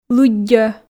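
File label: "лыддьӧ", Komi, female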